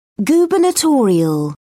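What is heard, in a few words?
"gubernatorial", British English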